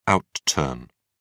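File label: "outturn", English, British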